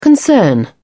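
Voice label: "concern", British English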